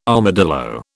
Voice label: "armadillo", British